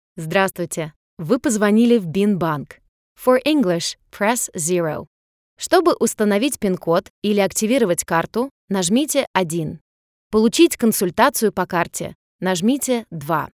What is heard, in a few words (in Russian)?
RUS IVR